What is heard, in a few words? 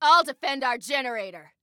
Self Defend Generator, Female